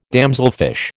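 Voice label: "damselfish", American English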